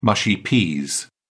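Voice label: "mushy peas", British English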